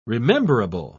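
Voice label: "rememberable", British English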